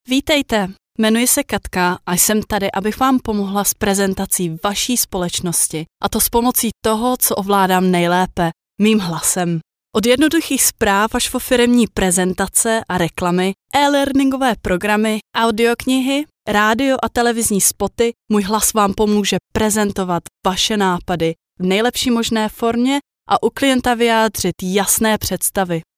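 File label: Czech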